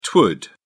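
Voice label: "twould", English, British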